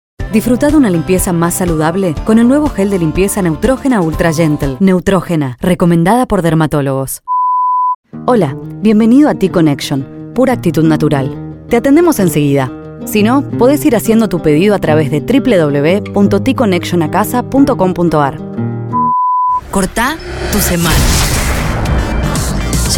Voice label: spanish argentinian commercial